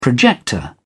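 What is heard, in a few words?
"projector", British English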